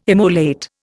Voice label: "immolate", Indian

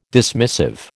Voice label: "dismissive", American

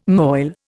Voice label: "moil", Indian

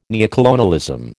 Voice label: "neocolonialism", American

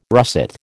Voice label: "russet", American

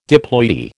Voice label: "diploidy", American

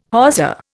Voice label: "hawser", Indian

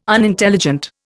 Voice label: "Unintelligent", Indian

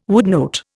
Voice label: "woodnote", Indian

